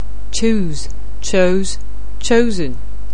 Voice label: "choose", anglais

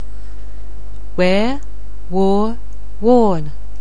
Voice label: "wear", anglais

